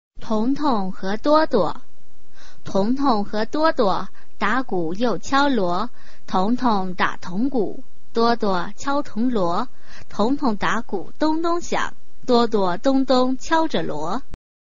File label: chinese tongue twister